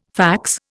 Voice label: "fax", Indian